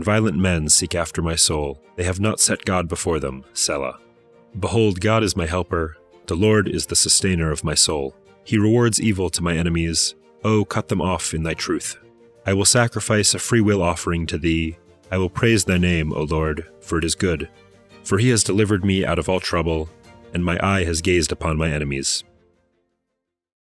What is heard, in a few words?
poetry and prayer psalm english